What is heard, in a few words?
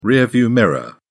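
"rearview mirror", British English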